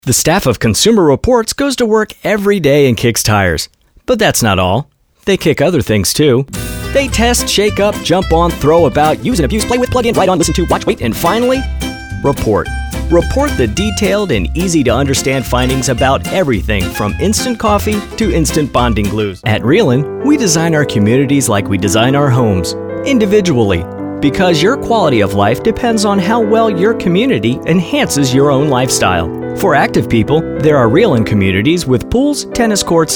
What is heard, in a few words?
Commercial